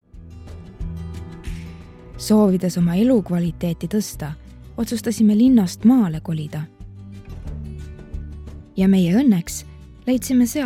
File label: Estonian F Conversational